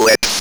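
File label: ROBVOX, robot voice; E-Lab; X Static Goldmine 1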